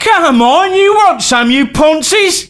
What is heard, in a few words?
Taunt, male; svencoop